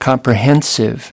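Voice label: "comprehensive", English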